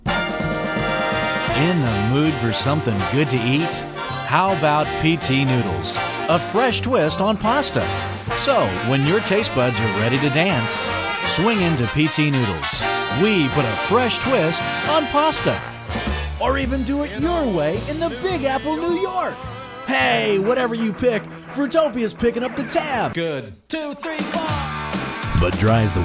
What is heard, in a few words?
National Commercial Samples